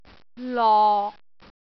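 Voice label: "lok6", Cantonese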